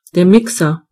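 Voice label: mixer, German voices words; gcompris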